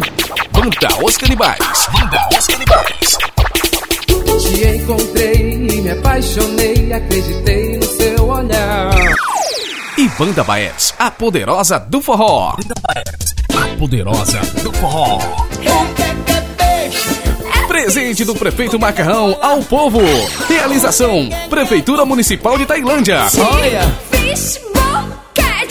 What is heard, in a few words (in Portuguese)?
locutor festa da virada